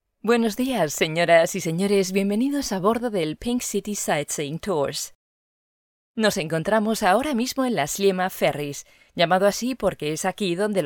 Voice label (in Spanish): es books; Malta